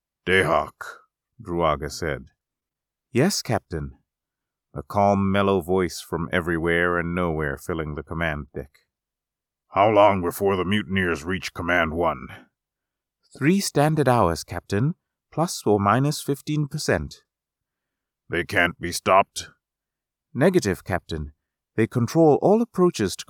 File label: audiobook